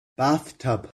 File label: "bathtub", English